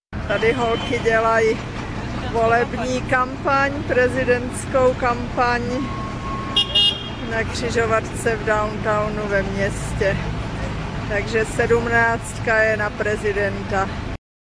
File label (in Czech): volebni kampan, Quito, Ekvador; Video Ekvador